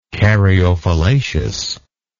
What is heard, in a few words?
"caryophyllaceous", English